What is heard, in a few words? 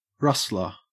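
"rustler", Australian English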